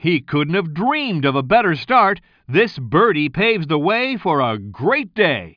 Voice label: commentator